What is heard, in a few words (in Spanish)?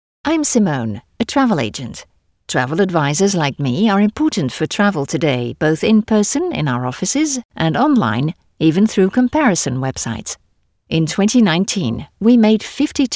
Femenino